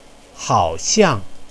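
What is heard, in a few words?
"haoxiang", Mandarin Chinese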